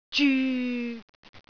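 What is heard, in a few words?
"zyu6", Cantonese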